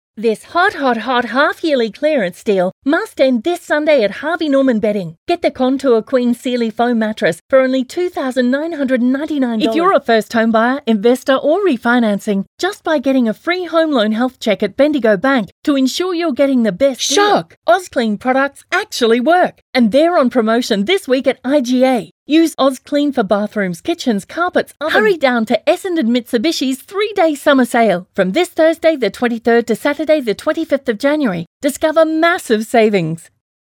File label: Hard Sell